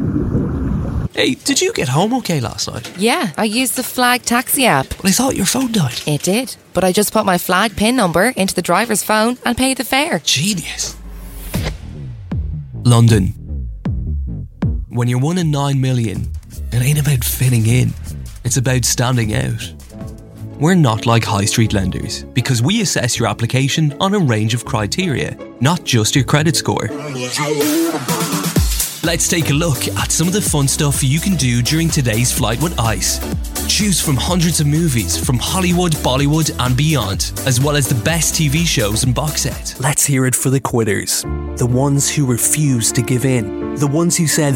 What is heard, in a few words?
irish voiceover montage